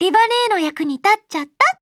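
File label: Upgrade, Japanese; Lenna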